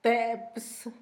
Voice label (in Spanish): poco, Zapoteco, Asunción Ocotlan; Adverbios; INALI; Haspelmath